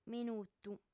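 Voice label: "minutu", female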